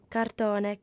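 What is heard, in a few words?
"cartone", female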